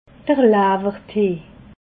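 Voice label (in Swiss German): "lawwertee", Alsatian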